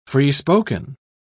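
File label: "free-spoken", British English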